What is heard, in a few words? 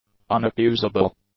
"unaccusable", English; adjectives